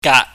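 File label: "gaat", Cantonese, mid level tone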